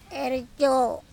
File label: "Erco", andamanese